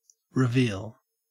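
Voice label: "reveal", Australian English; pronunciations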